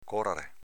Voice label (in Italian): "corare", dialetto veneto